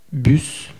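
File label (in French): "bus", French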